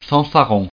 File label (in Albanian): "fanfaron", frengjisht